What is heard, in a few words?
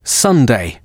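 "sunday" male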